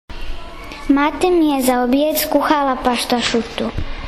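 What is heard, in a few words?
"obied", Croatian dialect; ručak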